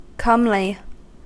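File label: "comely", US English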